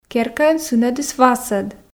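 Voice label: "керкаын сынӧдыс васӧд", Komi, female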